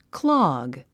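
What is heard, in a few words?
"clog", English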